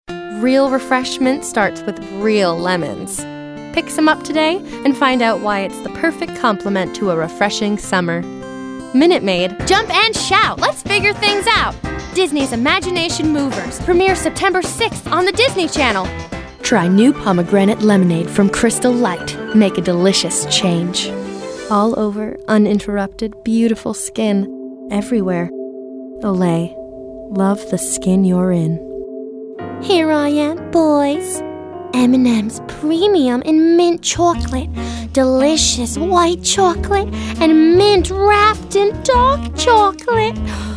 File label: voiceover